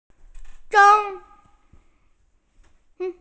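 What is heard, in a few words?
"zhen1", Mandarin Chinese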